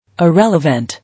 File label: "Irrelevant", English